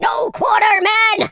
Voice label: "fireball", Pirate